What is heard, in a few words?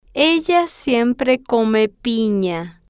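ella Siempre Come Pina, Spanish, female, slow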